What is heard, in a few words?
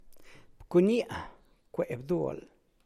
I kicked the side of the ball, San Lucas Quiaviní Zapotec; Bcunia cwe bdual.